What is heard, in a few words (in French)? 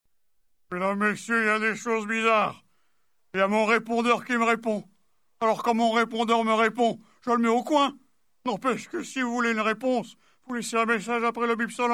repondeur qui repond